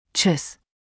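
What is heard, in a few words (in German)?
"tschus", German; powitanie i pożegnanie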